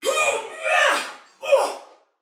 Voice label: Female; Sinecure